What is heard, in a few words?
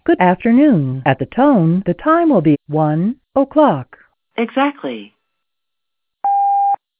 audio USA